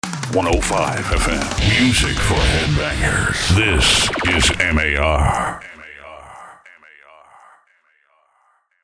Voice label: MUSIC FOR HEADBANGERS, Jingles; M.A.R